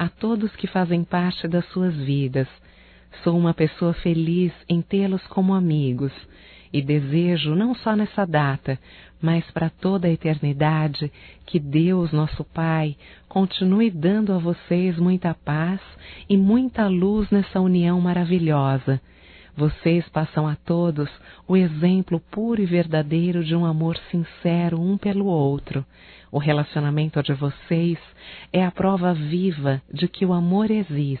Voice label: ANIV CASAMENTO, fem; Casamentos; Telemensagens 2017

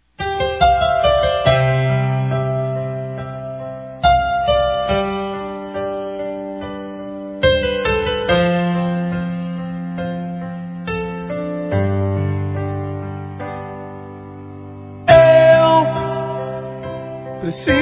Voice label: reconciliação f, Telemensagens, Gospel; fem; Telemensagens 2017

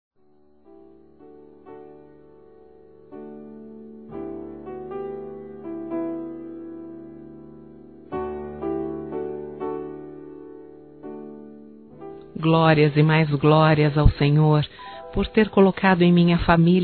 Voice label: Nora Feminino; Telemensagens 2017

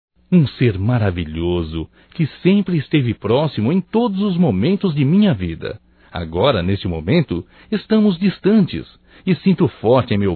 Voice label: SAUDADES MAE, Só Voz, masc; Telemensagens; Telemensagens 2017

